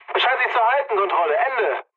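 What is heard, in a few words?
German chat, speech; War Thunder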